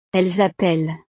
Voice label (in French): "elles appellent", frances